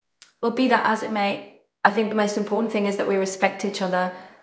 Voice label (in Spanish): Voice Acting es F0